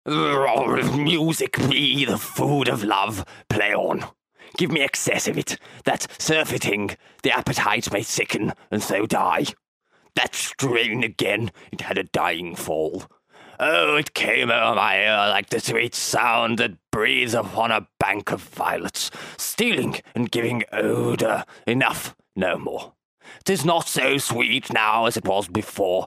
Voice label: posh character